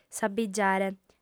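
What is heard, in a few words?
"s'abbigiare", female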